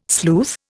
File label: "sleuth", Indian